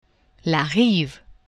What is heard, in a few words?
"rive", French; geographie